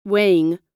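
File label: "weighing", American English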